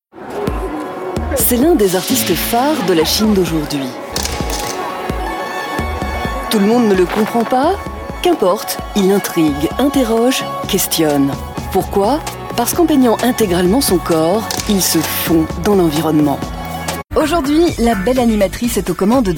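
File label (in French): French, female, commercial; voice demos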